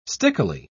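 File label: "stickily", British English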